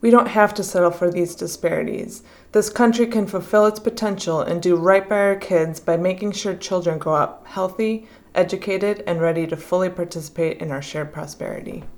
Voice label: english; RaceforResults